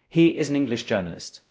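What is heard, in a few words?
Voice Acting Spanish, male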